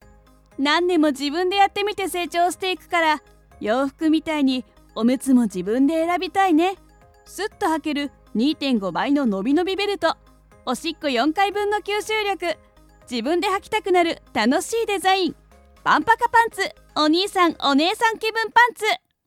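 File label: voice sample commercial omutsu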